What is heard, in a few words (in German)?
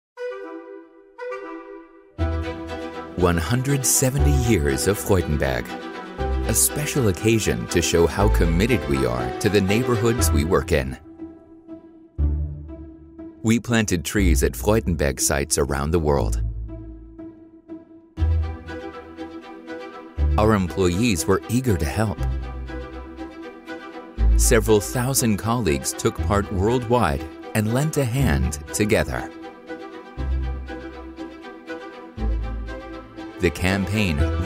ENGLISCH USA MALE Imagefilm; Freudenberg Group; 170 years of Freude